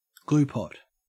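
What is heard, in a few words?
"gluepot", Australian English; pronunciations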